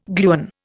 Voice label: "gluon", Indian